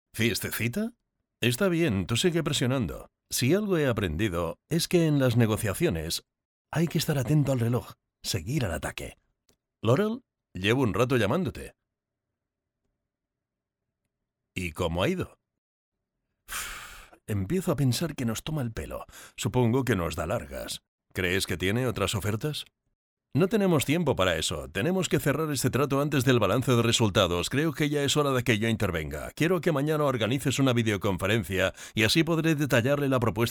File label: locutor doblaje spanish dub voiceover; actores actrices doblaje